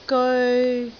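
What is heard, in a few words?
"geoi3", Cantonese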